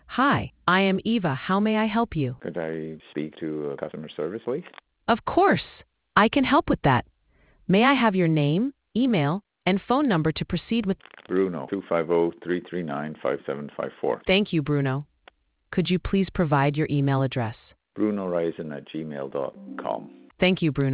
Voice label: conversation